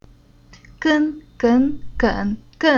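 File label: "Gen", Chinese syllable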